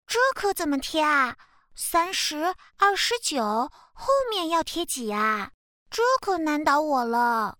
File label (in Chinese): 女童可爱小兔子小一点